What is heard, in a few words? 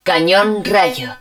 rail gun, Spanish